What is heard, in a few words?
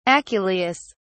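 "aculeus", English, British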